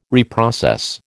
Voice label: "reprocess", American